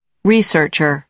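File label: "researcher", American English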